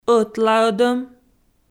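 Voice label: "ӧтлаӧдӧм" Komi, female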